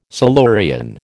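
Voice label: "Silurian", American English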